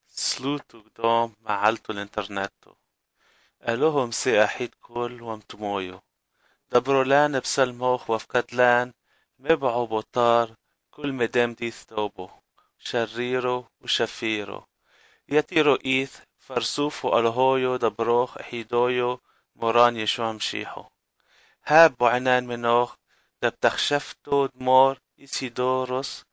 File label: internet prayer aramaic